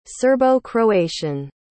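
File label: "serbo-croatian", English word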